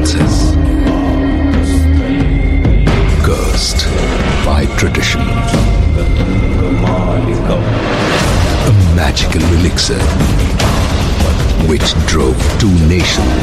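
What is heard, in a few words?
Indian Theatrical English, India Male; Shiva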